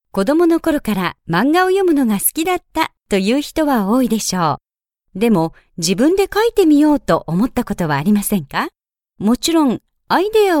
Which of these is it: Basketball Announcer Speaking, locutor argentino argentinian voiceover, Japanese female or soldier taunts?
Japanese female